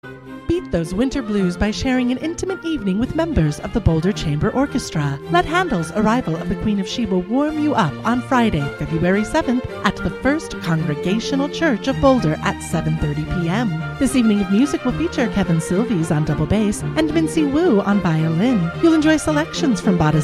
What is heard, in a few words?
Spot; Boulder Chamber Orchestra